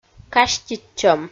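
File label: "каститчӧм", Komi, female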